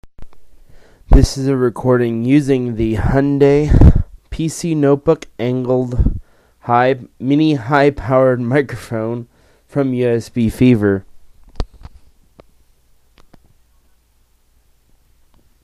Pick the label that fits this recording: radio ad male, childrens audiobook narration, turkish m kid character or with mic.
with mic